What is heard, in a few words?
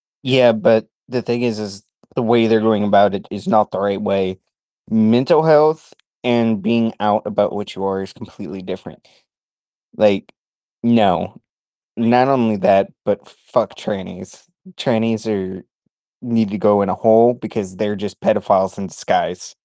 voice message